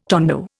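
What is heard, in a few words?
"tone", Indian; Dictionary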